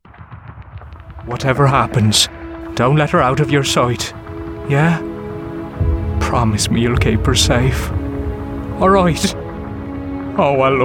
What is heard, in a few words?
Irish